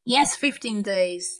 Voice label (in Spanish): Voice Acting es